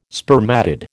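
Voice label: "spermatid", American English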